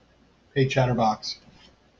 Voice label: hey chatterbox, en us